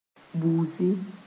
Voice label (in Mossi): "busi", moore